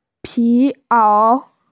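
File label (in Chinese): "pi2ao3", Mandarin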